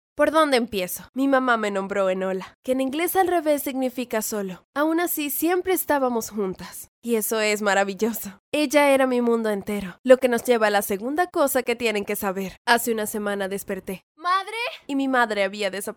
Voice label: locutora espanol neutro spanish american voiceover; locutoras latinoamericanas